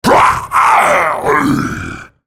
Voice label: dying, en US; Rengar